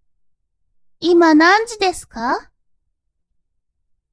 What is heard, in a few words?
woman